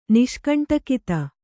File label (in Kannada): "ನಿಷ್ಕಂಟಕಿತ", Kannada